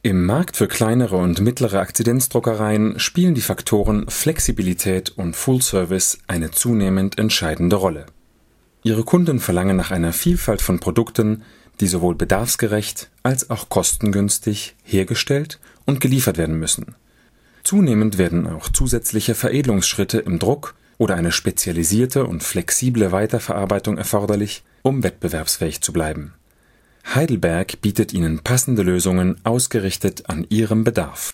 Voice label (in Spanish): locucion, voces; Bienvenido a nuestro banco de voces